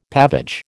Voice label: "pavage", American